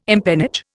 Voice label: "empennage", Indian